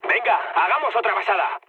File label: bomb failure mood med; speech; War Thunder